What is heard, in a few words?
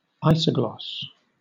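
"isogloss", British English; pronunciations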